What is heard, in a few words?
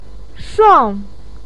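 "shuang", Mandarin Chinese, fourth tone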